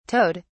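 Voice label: "towed", British English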